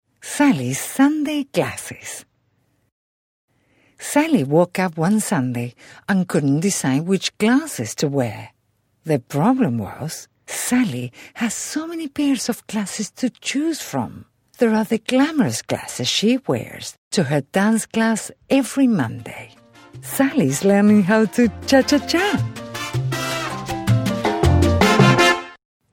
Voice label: english narration